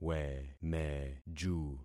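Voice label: "wemeju", concatenated syllables; Affixing experiment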